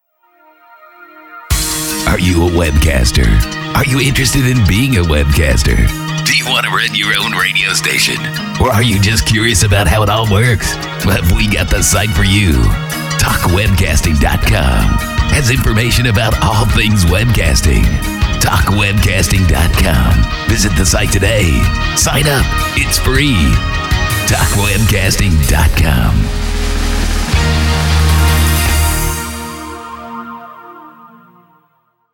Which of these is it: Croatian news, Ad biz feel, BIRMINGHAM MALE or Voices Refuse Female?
Ad biz feel